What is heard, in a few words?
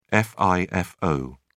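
"fifo", English, British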